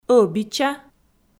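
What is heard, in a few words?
"ӧбича", Komi, female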